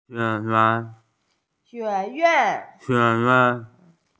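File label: "college", Mandarin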